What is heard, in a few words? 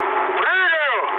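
Russian bailout mood med, speech; War Thunder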